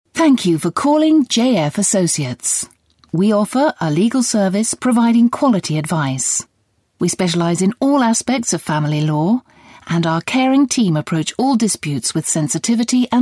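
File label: English UK, female, corporate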